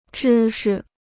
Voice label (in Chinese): "知识", Chinese